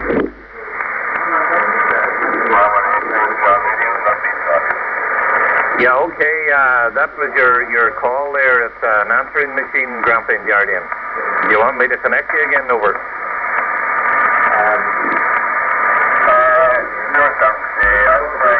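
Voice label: LINK, 1677kHz Radio "Link call" to onshore telephone number, Marine Audio HF; Malin Head R; Grampian Guardian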